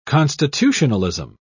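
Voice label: "constitutionalism", English, British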